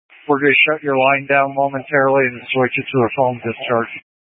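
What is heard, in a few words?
Incident Tactical, scanner audio recording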